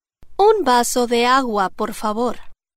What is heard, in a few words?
a glass of water please spanish